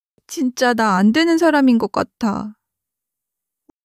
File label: crying, monologue